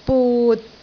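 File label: "but6", Cantonese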